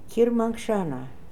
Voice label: "Kirmankshana", pronounciations; Fourth Way; Beelzebub